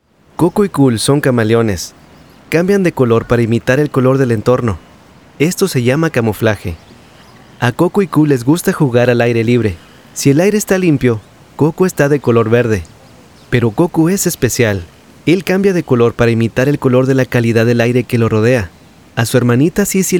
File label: spanish mexican audiobooks; mexikanisch-neutraler spanischer Synchr